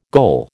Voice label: "goal", American

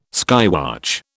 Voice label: "skywatch", British

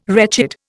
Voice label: "wretched", Indian

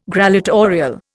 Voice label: "grallatorial", Indian

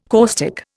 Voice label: "caustic", Indian

